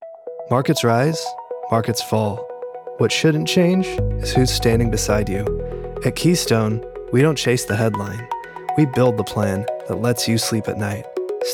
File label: commercial financial services; warm reassuring